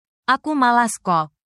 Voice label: indonesia, negative phrases